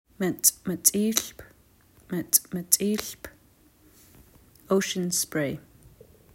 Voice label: mc̓mc̓ilp, Nsyilxen Plant Names; Ocean Spray